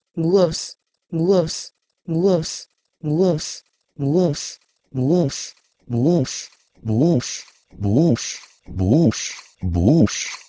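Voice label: Proto-Indo-European gwous to Ancient Greek bous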